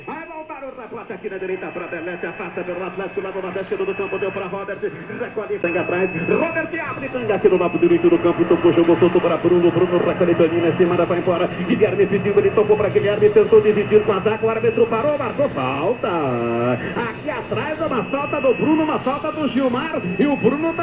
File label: Radio, Football; Fieldrecordings; Brasilien